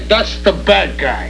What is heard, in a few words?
BAD GUY